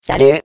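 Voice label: Defective Turret, French; turret defect return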